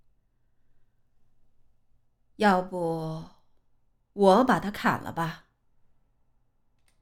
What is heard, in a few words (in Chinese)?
高冷御姐女声